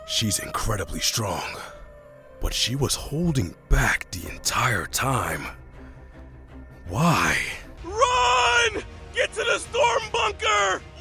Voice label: voiceover animation; Chicago